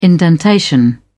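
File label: "indentation", British English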